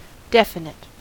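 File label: "definite", American English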